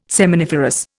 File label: "seminiferous", Indian